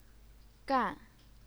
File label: "gan4", Chinese pinyin